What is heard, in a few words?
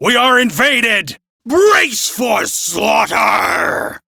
Crew Enemy Spot, Male, en; Warframe; Railjack Crew; Arbiters Hexis